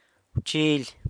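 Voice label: "wchily", San Lucas Quiaviní Zapotec; knife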